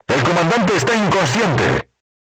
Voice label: voice message commander contused, crew tanks spanish